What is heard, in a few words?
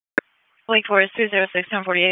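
Wake Forest Police Dispatch, p25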